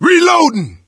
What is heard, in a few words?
reload intense; voice; source engine; left 4 dead 2